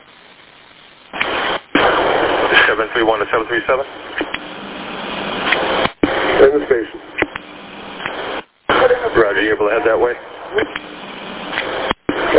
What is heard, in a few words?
Police Radio